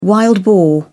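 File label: "wild boar", British English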